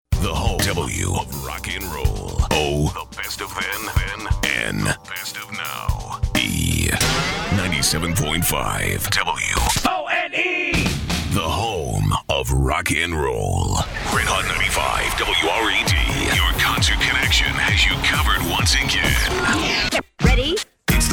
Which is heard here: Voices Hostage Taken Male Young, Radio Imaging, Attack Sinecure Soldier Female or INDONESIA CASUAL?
Radio Imaging